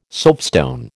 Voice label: "soapstone", American

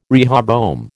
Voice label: "rehoboam", American

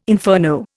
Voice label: "inferno", Indian

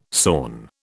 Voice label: "sawn", British

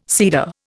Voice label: "seeder", Indian

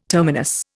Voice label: "terminus", Indian

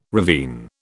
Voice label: "ravine", British

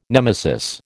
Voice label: "nemesis", American

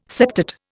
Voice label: "septate", Indian

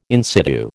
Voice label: "in situ", American

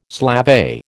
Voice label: "slavey", American

